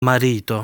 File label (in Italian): "Marito", Italian